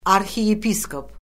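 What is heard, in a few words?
"arxiyepiskop", Azerbaijani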